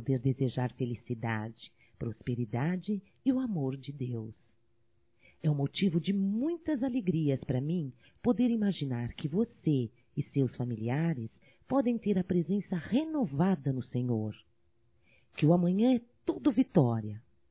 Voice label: ANO NOVO NEUTRO (F), Evangelico; Telemensagens 2017

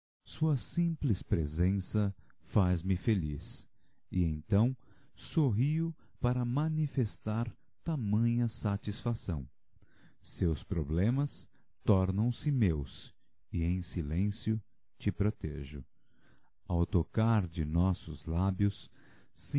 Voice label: Amo Você Masculino

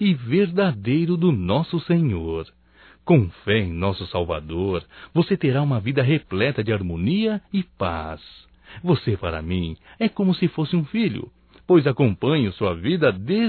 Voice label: Aniversário Sobrinho Evangélico Masculino; Telemensagens 2017

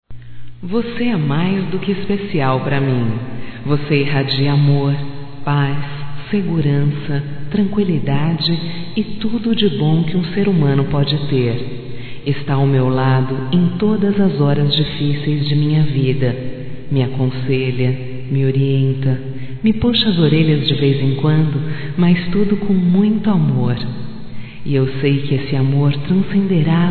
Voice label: AGRAD DE MAE, fem, Só Voz; Telemensagens; AGRADECIMENTOS

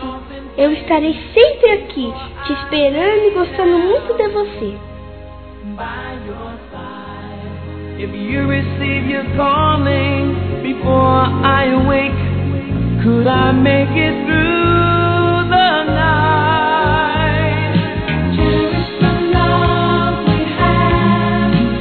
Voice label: Aniversário Tia Infantil Feminino; Telemensagens

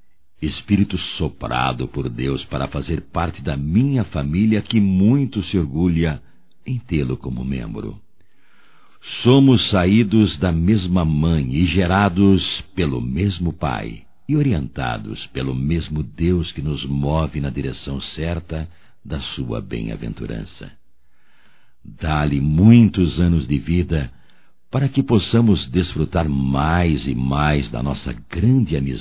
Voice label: Aniversário Irmão Masculino; Aniversarios; Telemensagens 2017

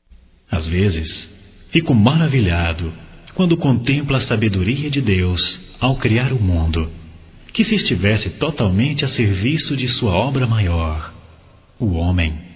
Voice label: Agradecimento Amizade Masculino

